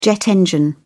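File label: "jet engine", British English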